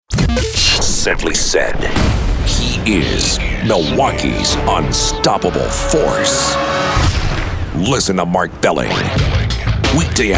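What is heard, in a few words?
voice over news; News/Talk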